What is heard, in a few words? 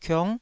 "kiong9", taiwanese syllable